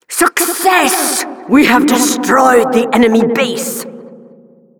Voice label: Announcer rocket launch